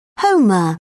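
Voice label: "homer", British English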